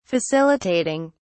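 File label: "facilitating", British English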